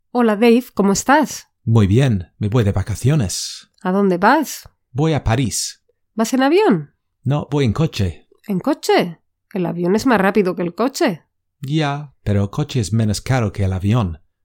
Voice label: Listening Practice Irregular Comparative Adjectives